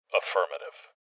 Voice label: Confirmation Male